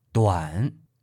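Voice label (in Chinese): "duan", Mandarin Chinese, male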